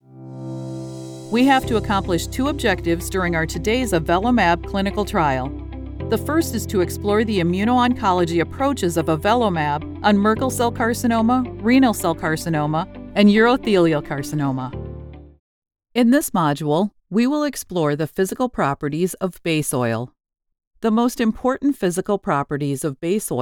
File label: Elearning